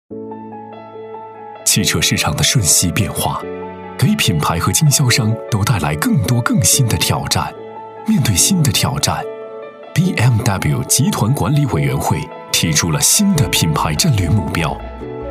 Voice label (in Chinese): 男, 品牌宣传片, 大气品牌; 大气主流; 高端大气; BMW卓悦